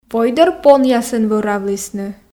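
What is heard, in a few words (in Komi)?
войдӧр понъясӧн вӧравлісны, Komi, female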